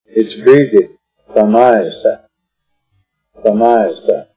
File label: "tonóeese'", Arapaho; tonóeese' = a cool breeze is blowing; Arapaho Language Project; RMNP; Weather Vocabulary related to Winter